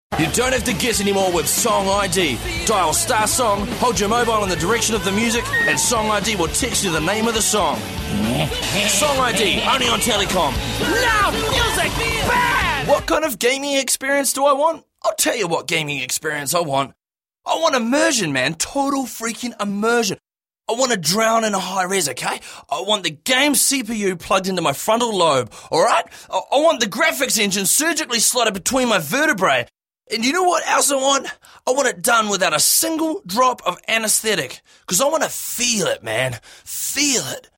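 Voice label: English New Zealand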